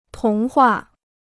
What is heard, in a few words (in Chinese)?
"童话", Chinese